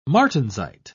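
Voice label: "martensite", British English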